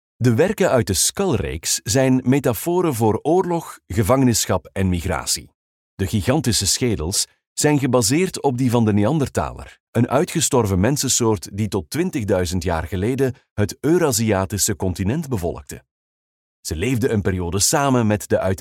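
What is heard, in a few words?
Flemish, male, museum audio guide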